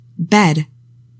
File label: "bed", English; 3000 words